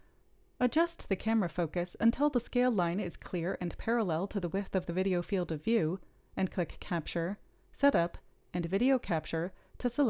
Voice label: Gong Voiceover